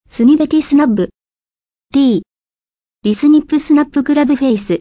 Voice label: japanese snibeti